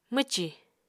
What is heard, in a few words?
"mwcì", Apatani